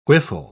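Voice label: "whiffle", British English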